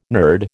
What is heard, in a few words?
"nerd", American